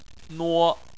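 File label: "nuo" first tone, Chinese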